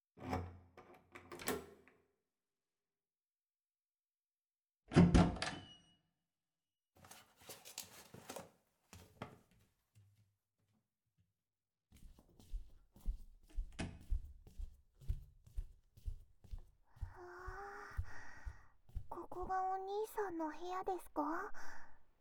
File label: ASMR